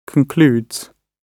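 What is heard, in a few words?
"concludes", British English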